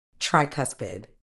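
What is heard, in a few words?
"tricuspid", British English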